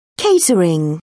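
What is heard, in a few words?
"catering", British English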